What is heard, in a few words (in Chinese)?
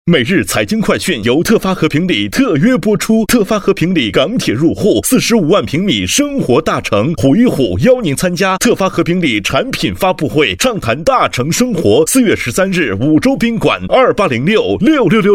男 广播; 北京长书广播; 特发和平里